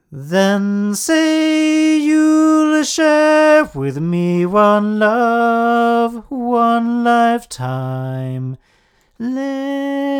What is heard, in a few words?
Neumann TLM170 8 male